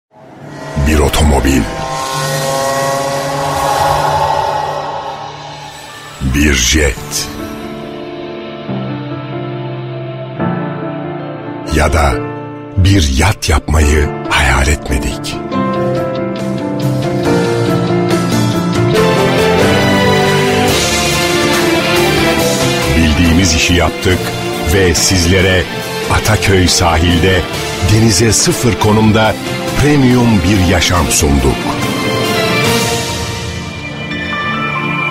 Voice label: reklam otoriter; sea pearl

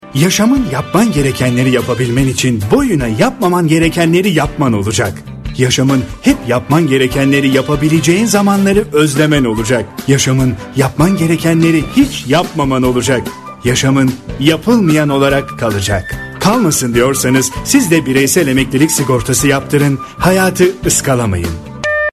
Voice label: reklam enerjik; mapfre

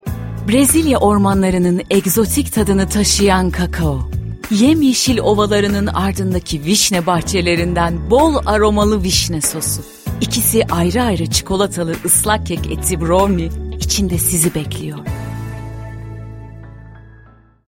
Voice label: reklam cazibeli; browni

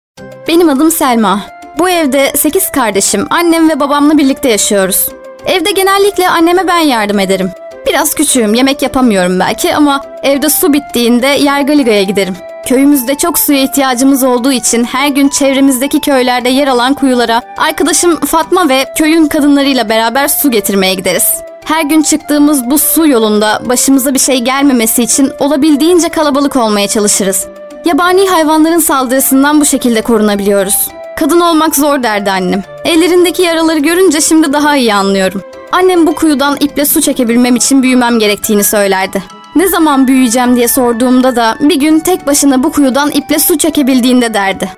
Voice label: anlatici

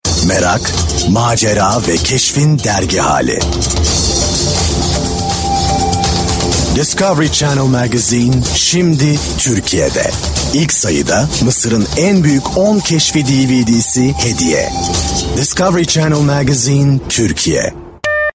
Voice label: reklam karizmatik; discoverymagazine

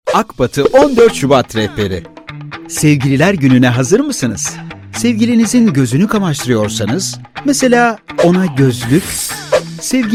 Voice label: reklam enerjik ilgicekici; akbati

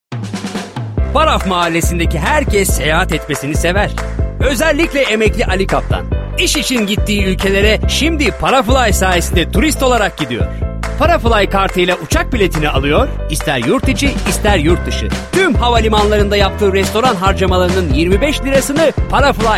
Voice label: reklam neseli ilgicekicii; paraf

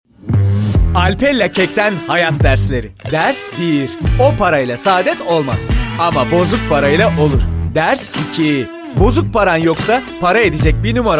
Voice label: reklam neseli dinamik ilgi cekici; alpella kek

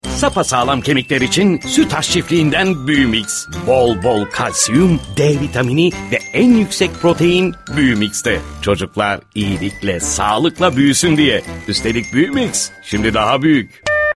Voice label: emotion dinamik

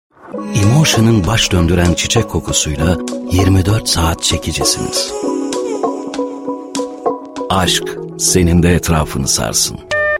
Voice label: emotion karizmatik

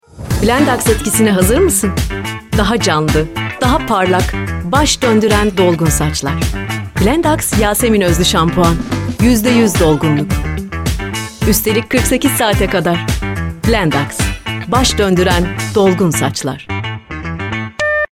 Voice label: reklam neseli enerjik; blendax

